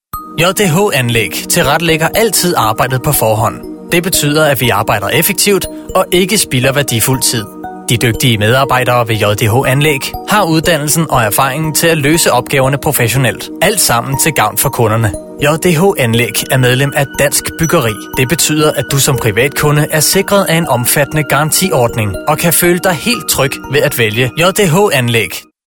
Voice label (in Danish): Virksomhedsfilm Demo